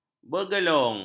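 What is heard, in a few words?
"bergelung", Malay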